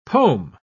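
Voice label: "pome", British English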